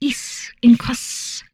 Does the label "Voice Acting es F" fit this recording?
No